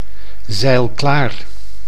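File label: "zeilklaar", Dutch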